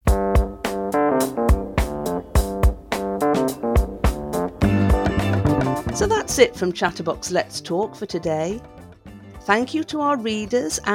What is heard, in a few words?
Closing Announcement